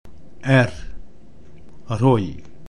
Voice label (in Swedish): "Roj", Romska